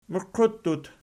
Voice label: "muqiuttut", Archi